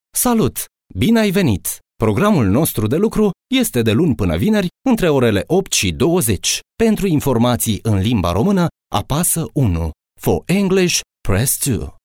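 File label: Romanian, male, IVR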